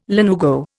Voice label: "lanugo", Indian

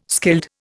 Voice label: "skilled", Indian

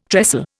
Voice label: "trestle", Indian

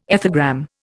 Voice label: "ethogram", Indian

